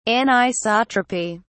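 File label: "anisotropy", British English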